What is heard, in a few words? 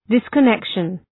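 "disconnection", English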